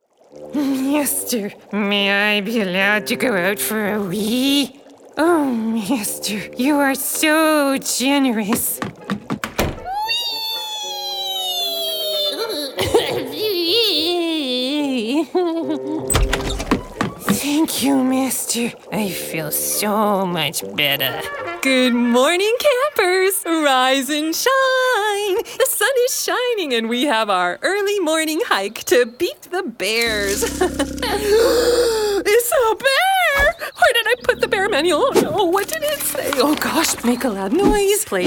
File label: animation, voiceover